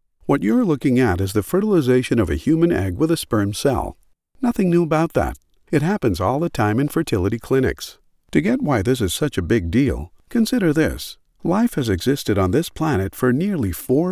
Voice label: Explainer narration